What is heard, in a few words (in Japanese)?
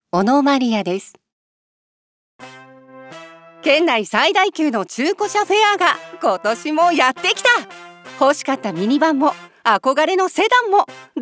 CM、元気、明るい; 「中古車フェア」